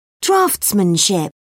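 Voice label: "draughtsmanship", British English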